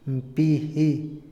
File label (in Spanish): "inyección", Otomí, hombre; Moderno; INALI